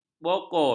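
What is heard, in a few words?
"bokor", Malay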